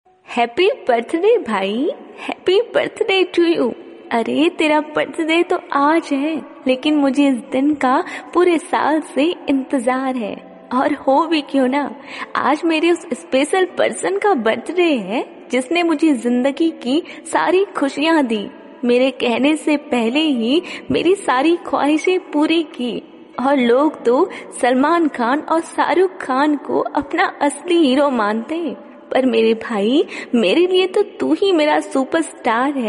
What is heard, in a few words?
Happy Birthday Bhai Birthday Poetry For Brother Hindi